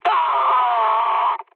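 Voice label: damaged pilot, mood low, French; War Thunder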